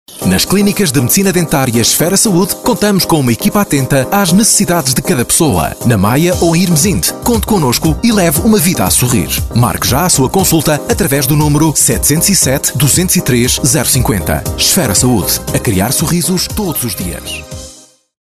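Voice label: Portuguese, male, commercial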